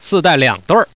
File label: Man